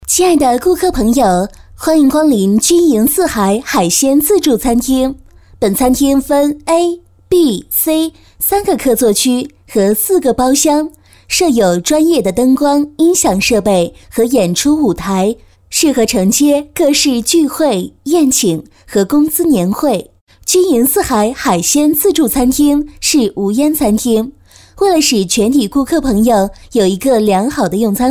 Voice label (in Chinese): 女 广播语 温馨 酒店温馨提示, 国语女声配音